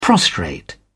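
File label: "prostrate", British English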